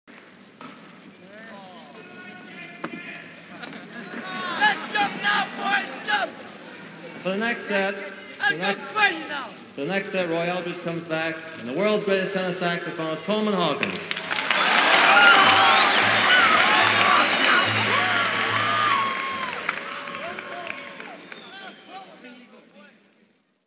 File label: announcement; Norman Granz